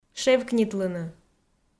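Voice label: "шевкнитлыны", Komi, female; kpv audio dict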